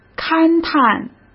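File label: "勘探", Mandarin Chinese; hsk; chinese flashcards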